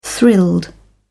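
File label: "thrilled", British English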